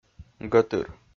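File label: "гӧтыр", Komi, male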